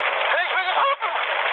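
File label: German aircraft destroyed mood high, speech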